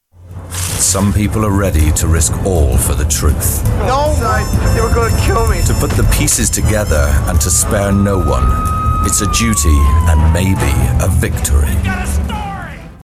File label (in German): Eng UK Kommentar Kraftvoll